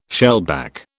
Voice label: "shellback", British

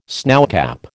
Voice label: "snowcap", American

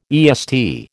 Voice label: "EST", American

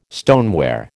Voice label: "stoneware", American

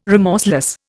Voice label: "remorseless", Indian

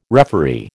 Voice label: "referee", American

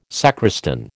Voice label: "sacristan", American

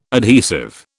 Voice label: "adhesive", British

